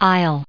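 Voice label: "ill", US English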